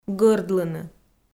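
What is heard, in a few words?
"гӧрдлыны", Komi, female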